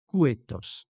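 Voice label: "coetas", Occitan Languedocien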